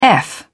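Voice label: "eff", British English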